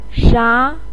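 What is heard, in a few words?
"sha2", Mandarin Chinese, pinyin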